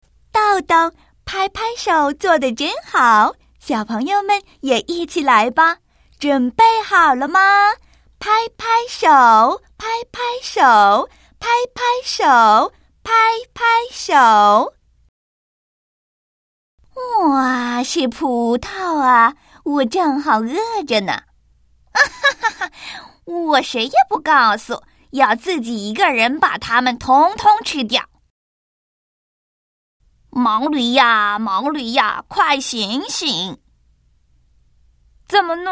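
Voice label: chinese mandarin female character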